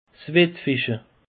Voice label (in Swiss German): "wettfische", alsace; peche